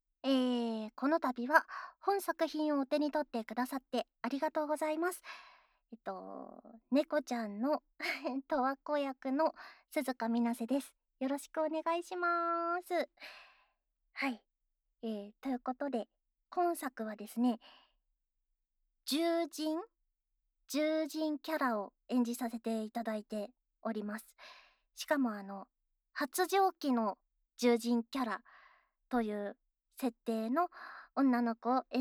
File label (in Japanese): フリートーク